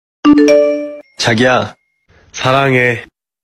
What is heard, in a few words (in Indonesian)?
nada wa saranghae cowok; nada dering wa sebut nama sayang